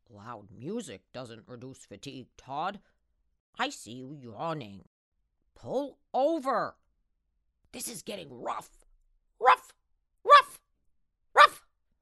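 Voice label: Voice Over; Bouncing Dog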